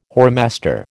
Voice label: "whoremaster", American